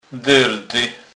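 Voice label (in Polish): "derdy", Kurpie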